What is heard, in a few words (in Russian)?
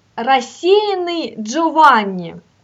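"Rasseyanny Dzhovanni", Russian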